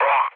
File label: damaged pilot, speech cze, mood high; War Thunder